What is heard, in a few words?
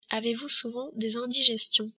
"indigestions", French